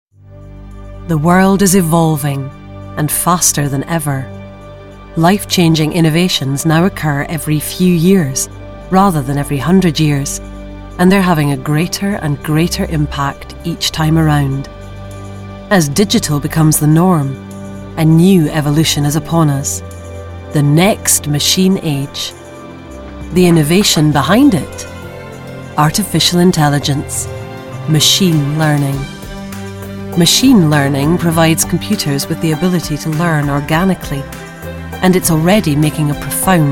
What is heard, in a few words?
Technical Corporate